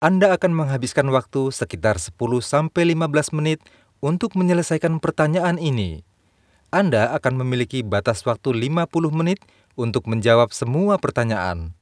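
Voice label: Indonesian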